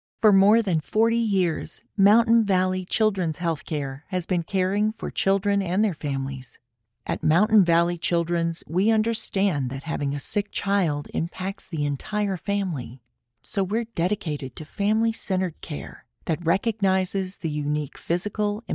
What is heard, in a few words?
Pediatric Hospital Ad